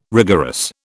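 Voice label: "rigorous", British English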